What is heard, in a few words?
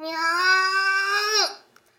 angry, vocal; Techmino